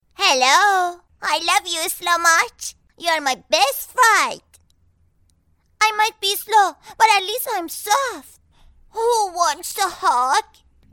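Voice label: Farsi Child; GoLocalise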